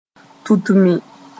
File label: "tutmi", moore